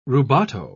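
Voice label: "rubato", British English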